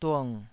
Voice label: "dung3", taiwanese syllable

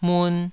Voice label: "mun2", Taiwanese

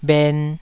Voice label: "bhen2", taiwanese

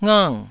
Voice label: "ngang4", taiwanese syllables

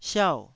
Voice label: "siau4", Taiwanese syllable

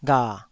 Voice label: "gha3", Taiwanese syllable

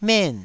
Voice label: "men4", Taiwanese syllable

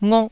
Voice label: "ngok1", taiwanese syllables

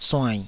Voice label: "suainn3", taiwanese Syllables

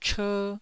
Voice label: "cher1", taiwanese

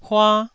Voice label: "hua1", taiwanese syllable

